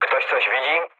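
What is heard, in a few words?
Polish chat; War Thunder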